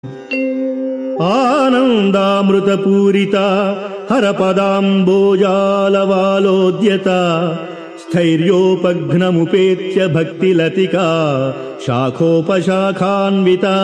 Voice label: Sanskrit; Sri Adi Sankaracharyas Sivananda Lahari; Adi Shankaracharya; श्रीशिवानन्दलहरी ४९